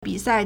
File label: "bi3sai4", Chinese